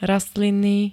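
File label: "rastlinný", Slovak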